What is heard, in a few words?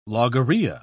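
"logorrhea", British English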